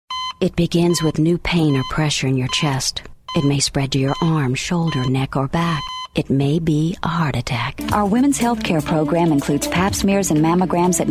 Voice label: Narration